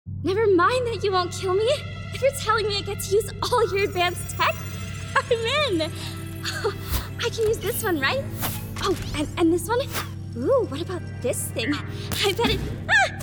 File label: Character